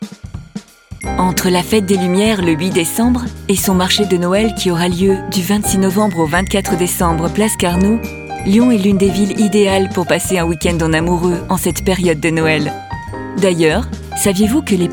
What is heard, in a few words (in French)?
VO PUB; INDIGO LYON